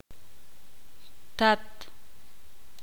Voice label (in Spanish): "padre", ixil